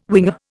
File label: "winger", Indian